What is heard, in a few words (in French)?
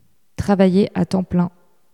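"travailler à temps plein", French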